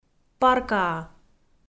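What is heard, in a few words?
"паркаа", Komi, female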